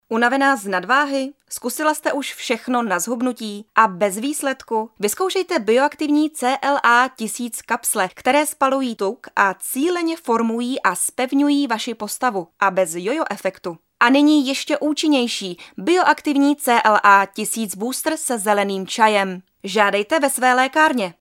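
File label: female Czech commercial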